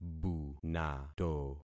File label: "bunato", concat syllables; Affixing experiment